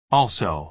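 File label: "also" American English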